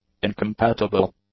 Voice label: "incompatible", English; adjectives